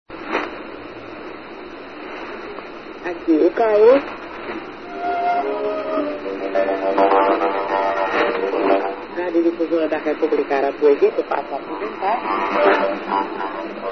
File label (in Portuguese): 11790 khz Portugues